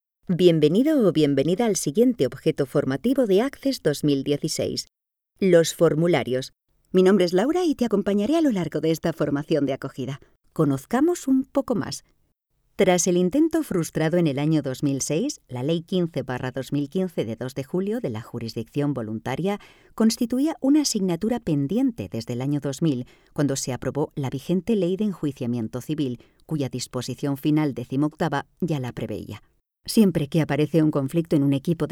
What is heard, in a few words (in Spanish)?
Muestra Elearning